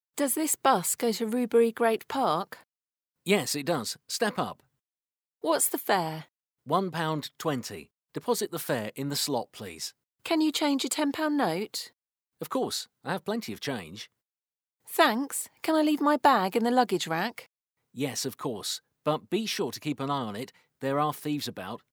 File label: public transport dialogue; utazás tömegközlekedési eszközökön